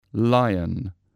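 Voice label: "lion", English word; animals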